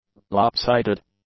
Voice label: "lopsided", English; adjectives